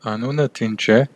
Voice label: name, armenian western; Անունդ ի՞նչ է; (Anoonuht eench e?) inf

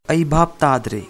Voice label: no understand, manipuri

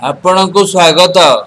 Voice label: youre welcome, odia

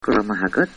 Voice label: "thanks", Irish

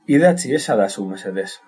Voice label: "write", basque; Idatz iezadazu mesedez

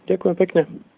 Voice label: thanks, slovak

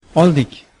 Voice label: cheers uzbek

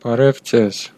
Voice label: hello, armenian western

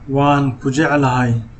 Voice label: i love you, somali

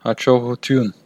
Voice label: goodbye, armenian western

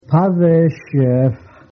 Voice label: good afternoon, Cheyenne

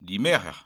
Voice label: wednesday, Breton

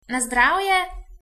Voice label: cheers, slovenian

